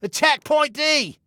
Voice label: ATTACK, Male; Male 02